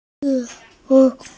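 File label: "food", Mandarin, hearing-impaired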